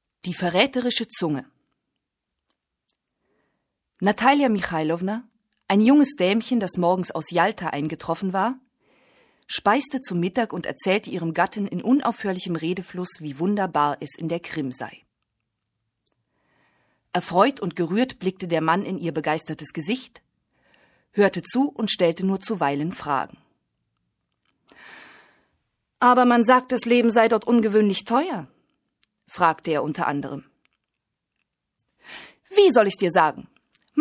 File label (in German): deutsch, Sprecherin, telefonansagen; weiblich